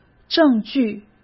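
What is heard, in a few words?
"证据", Mandarin Chinese; chinese flashcards; hsk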